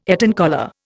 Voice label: "Eton collar", Indian

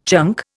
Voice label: "chunk", Indian

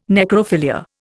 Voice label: "necrophilia", Indian

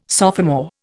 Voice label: "sophomore", Indian

